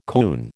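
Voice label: "coon", American English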